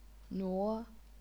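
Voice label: "nuo", second tone, chinese pinyin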